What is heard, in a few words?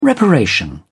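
"reparation", British English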